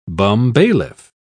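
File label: "bumbailiff", British English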